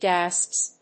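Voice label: "Gasps", English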